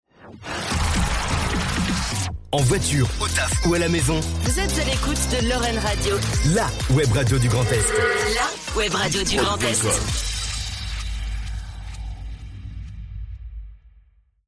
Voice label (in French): Radio Jingle A l'écoute